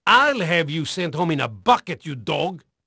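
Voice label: Portuguese male, voice; Pirates Of The Caribbean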